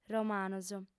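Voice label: "romanos", female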